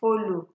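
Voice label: "follo", Nigerian Pidgin, female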